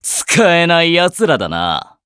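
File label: victory, Japanese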